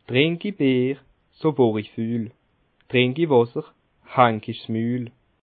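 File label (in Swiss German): "trink", Bas Rhin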